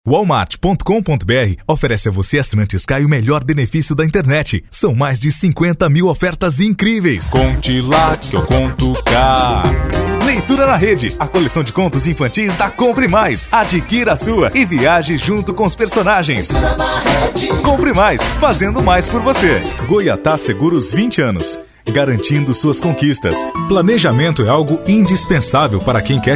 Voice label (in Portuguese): Padrão Motivacional